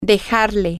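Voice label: Spanish, to leave something for him her, female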